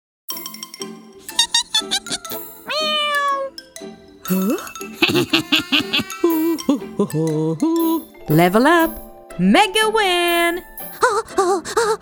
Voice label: Hebrew video games